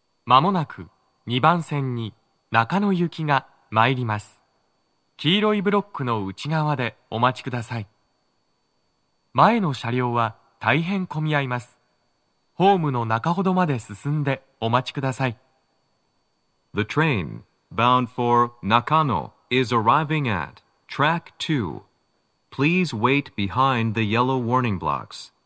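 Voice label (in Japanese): 接近放送, 男声, 中野行 (混雑付帯)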